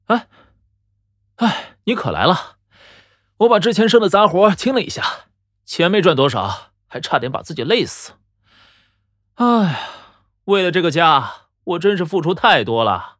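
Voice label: voice Chinese welcome; Outsider; Aote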